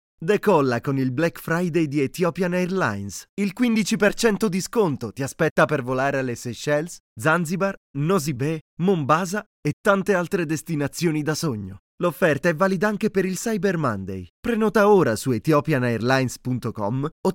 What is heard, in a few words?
Italian promos